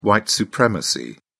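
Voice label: "white supremacy", British English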